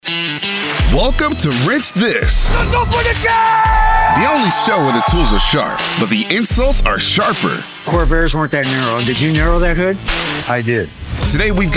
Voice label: TV Narration